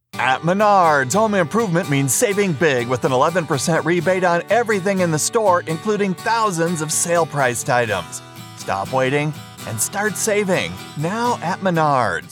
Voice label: commercial